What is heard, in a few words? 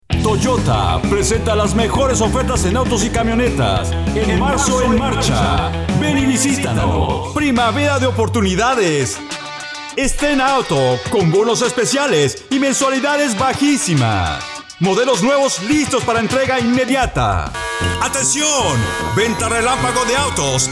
Spanish